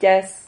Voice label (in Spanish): "yaz", Silabas